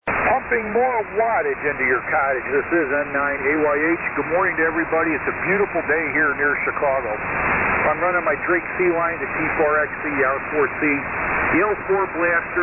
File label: Drake T-4XC & R-4C and L-4 Amp; Lombard,IL.